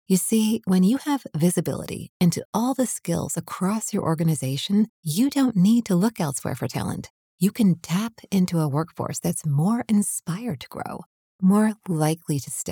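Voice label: English American, female, voice over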